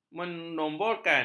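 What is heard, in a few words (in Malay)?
"menomborkan", Malay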